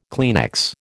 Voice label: "Kleenex", American